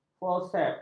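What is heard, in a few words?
"forsep", Malay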